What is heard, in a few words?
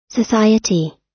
"society", us